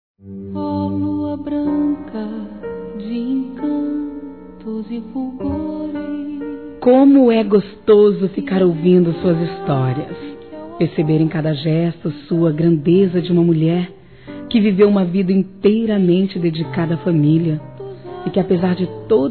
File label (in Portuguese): Aniversário Avó Feminino; Aniversários; Aniv Familiar